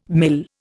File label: "mil", Indian